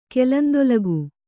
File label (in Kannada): "ಕೆಲಂದೊಲಗು", Kannada